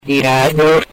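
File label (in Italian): "dirà", Italian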